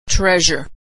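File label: "treasure", American English pronunciation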